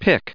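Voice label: "pick", US English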